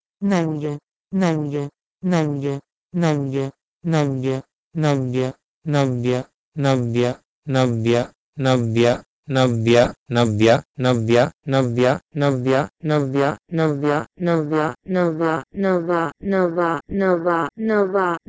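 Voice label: Proto-Indo-European neuio to Persian noo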